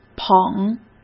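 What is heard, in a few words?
"捧", Mandarin Chinese; hsk; chinese-flashcards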